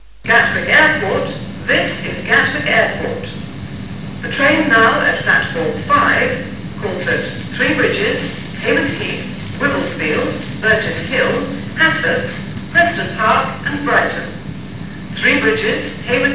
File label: Gatwick Airport pre-recorded platform announcement